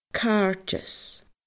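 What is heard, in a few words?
"cairdeas", Scottish Gaelic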